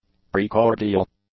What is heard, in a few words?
"precordial", english; adjectives